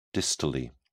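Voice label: "distally", British English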